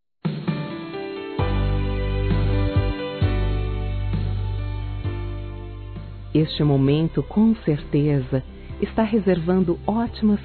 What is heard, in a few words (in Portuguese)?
Romantica Boas Ferias Feminino, Telemensagens; Românticas